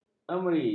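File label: "emeri", Malay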